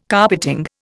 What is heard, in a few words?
"carpeting", Indian